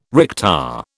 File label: "recta", British